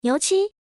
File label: female